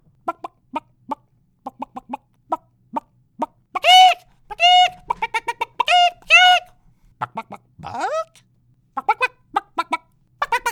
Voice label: Cartoon Animals